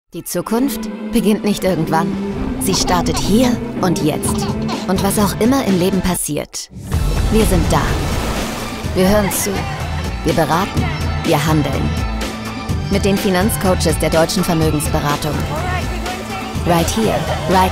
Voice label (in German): Werbung; Generali